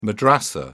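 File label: "madrasa", British English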